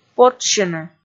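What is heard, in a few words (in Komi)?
"потшсьыны" Komi, female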